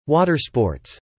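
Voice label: "water sports" spoken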